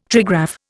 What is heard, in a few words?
"trigraph", Indian